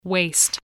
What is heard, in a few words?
"waist", spelling